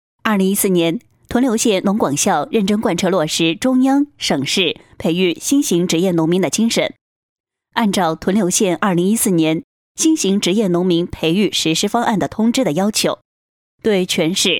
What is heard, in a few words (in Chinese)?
女 新闻专题 官方 工作汇报; 女11-洪亮激情; 沉稳大气